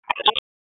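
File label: Raleigh Police Northeast District Tactical, p25; Wake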